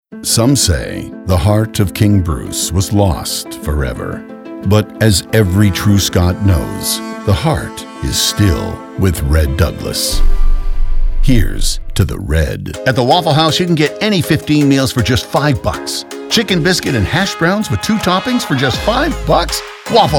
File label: Commercial